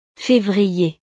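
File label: "fevrier", French; los meses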